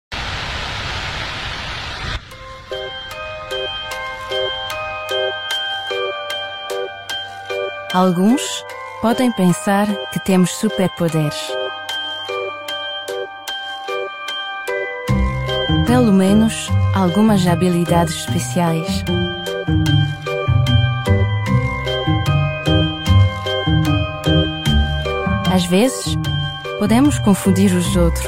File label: portuguese commercial